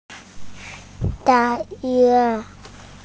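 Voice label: "da4 yue1", Mandarin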